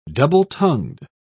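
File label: "double-tongued", British English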